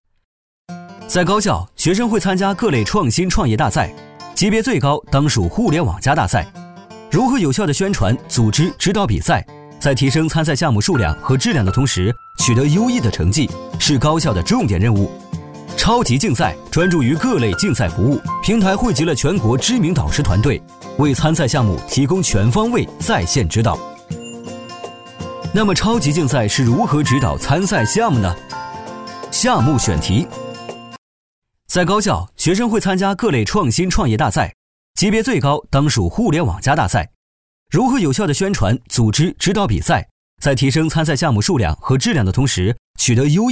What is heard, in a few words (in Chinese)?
男 广告; 科技感; 超级竞赛; 浑厚有力